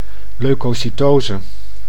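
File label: "leukocytose", Dutch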